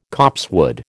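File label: "copsewood", American English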